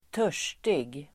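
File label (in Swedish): "törstig", Swedish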